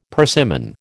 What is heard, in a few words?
"persimmon", American